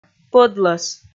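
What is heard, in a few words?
"пӧдлӧс", Komi, female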